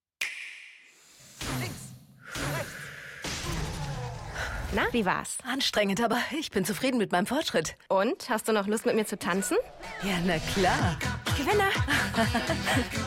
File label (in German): OFF Szene; Nintendo RingFit